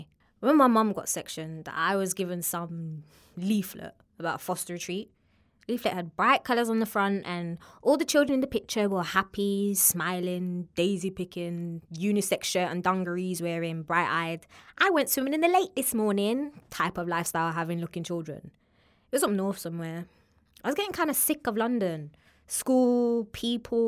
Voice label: Drama

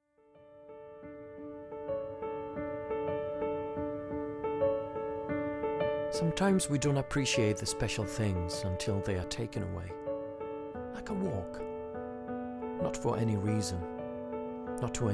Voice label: Ad English; Peroni

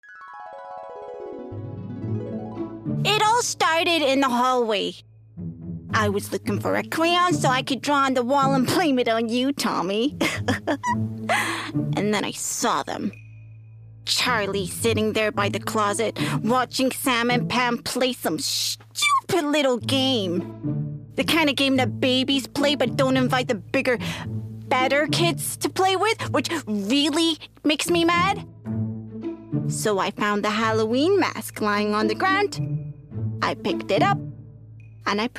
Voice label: Animation, female

